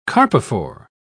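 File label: "carpophore", English, British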